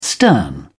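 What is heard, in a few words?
"stern", British English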